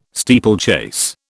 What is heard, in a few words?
"steeplechase", British